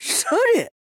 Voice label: necromancer oldwoman attack, voice